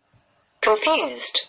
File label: confused